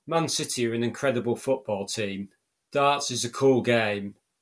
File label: noisy reference